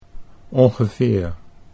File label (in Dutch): "ongeveer", Dutch